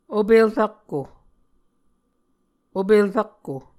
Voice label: "opel rakko", Muscogee; Mvskoke Words with Repeated Consonants; Martin, Jack B., and Margaret McKane Mauldin. 2023. A Dictionary of Creek/Muskogee